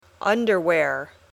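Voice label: "underwear", English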